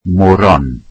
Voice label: welsh carrots